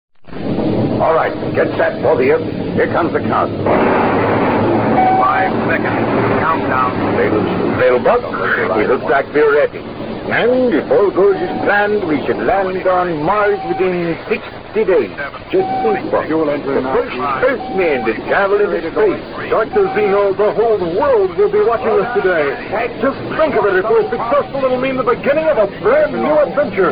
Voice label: Vintage Commercials; Bubble Up